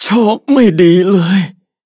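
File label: hit, Thai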